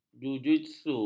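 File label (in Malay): "jujitsu", Malay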